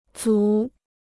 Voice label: "组", Chinese